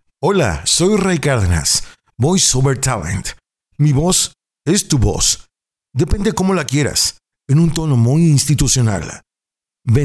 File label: dry session